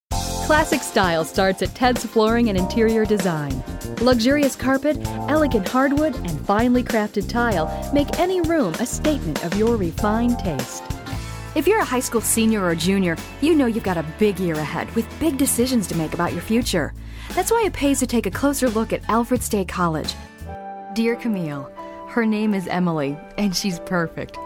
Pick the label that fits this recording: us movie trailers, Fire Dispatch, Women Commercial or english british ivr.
Women Commercial